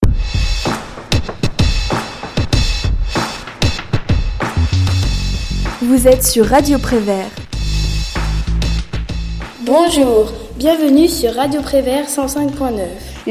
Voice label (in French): la minute latin